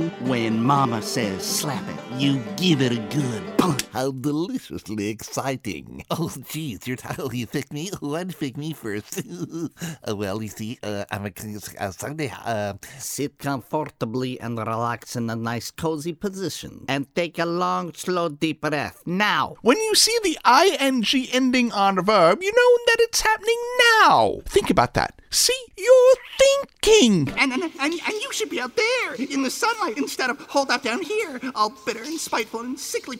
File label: Animation